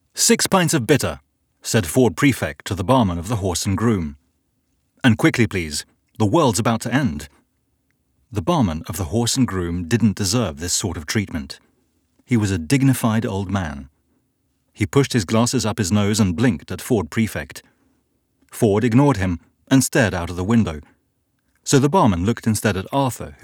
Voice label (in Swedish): M ljudbok eng